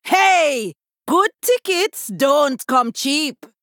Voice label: Female Troll Play